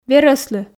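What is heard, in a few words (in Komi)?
"верӧслы", Komi, female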